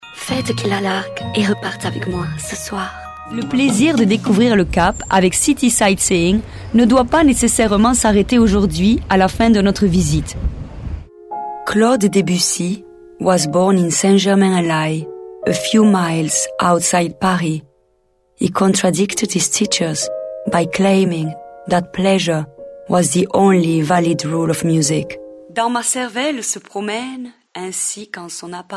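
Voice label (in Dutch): Franse stemacteur voice-over, French voice actor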